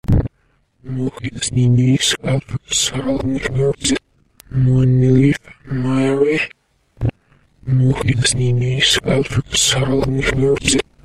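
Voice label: Reversed speech 3 - Produced by recording myself saying 'Here i am, feeling numb, I represent the person you must become' reversed and distorted in premier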